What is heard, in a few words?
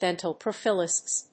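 "DENTAL PROPHYLAXIS", English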